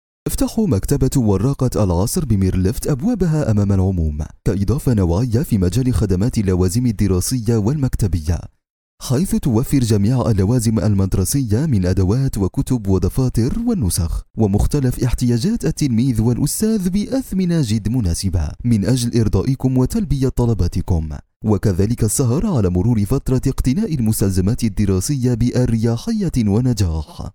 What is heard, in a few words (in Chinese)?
阿拉伯语男 外语 小语种 轻快